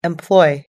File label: "employ", us english